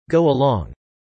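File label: "go along" spoken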